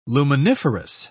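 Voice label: "luminiferous", British English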